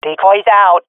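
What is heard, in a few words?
throwing decoy, swat female; vo; Counter Strike Model Pack; CS:GO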